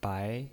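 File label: "bai", Mandarin Chinese, second tone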